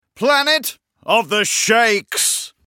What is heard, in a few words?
narrator line shakes; Danger Mouse - Ultimate